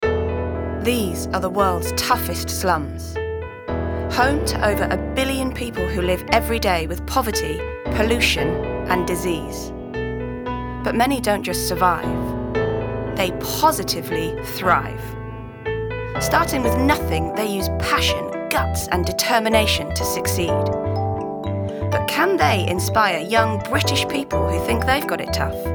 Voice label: VO narrative